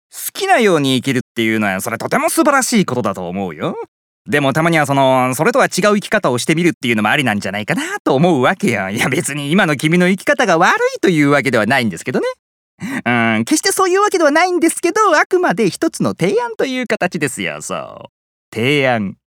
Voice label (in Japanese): 早口30代